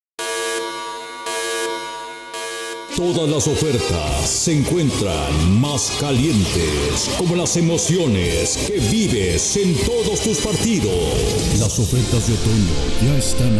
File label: Male